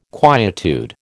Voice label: "quietude", American